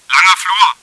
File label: long hall, german; csco; csgo; bot